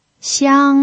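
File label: "xiang", first tone, Mandarin Chinese pinyin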